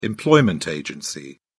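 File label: "employment agency", British English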